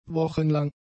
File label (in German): "wochenlang", German; adjectives